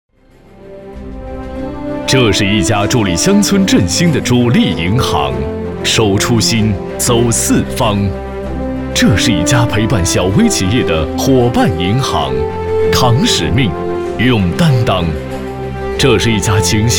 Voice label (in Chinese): 男 宣传片; 银行宣传样音; 射阳农商银行宣传片